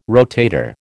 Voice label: "rotator", American